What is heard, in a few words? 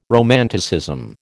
"romanticism", American